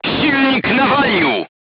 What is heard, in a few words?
voice message engine destroyed, polish